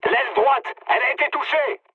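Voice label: right wing, mood high, French; War Thunder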